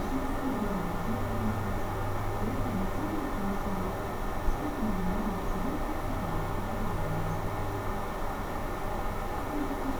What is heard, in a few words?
normal speech